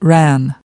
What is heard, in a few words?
"ran", us